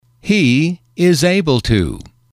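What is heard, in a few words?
He is able to can, male, English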